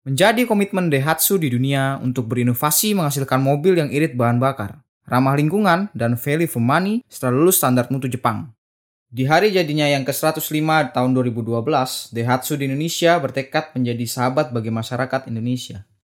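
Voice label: Indonesian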